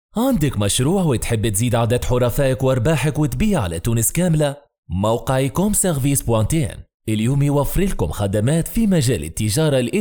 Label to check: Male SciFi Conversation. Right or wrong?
Wrong